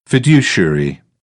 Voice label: "fiduciary", British; AmericanBritish

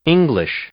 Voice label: "English", English; noun

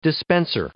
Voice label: "dispenser", English

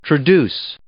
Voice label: "traduce", English

